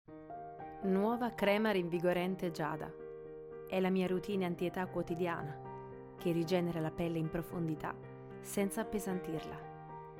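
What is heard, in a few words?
Italian, Commercial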